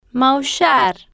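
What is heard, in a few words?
"maullar", Spanish, female, slow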